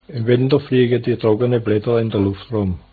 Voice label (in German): schwabisch